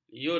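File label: "liut", Malay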